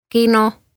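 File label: "Kino", Estonian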